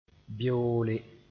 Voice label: "beoole", Mooré